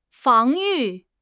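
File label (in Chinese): "防御", Chinese; HSK6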